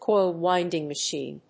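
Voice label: "coil winding machine", English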